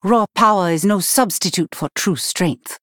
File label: Female Night Elf Start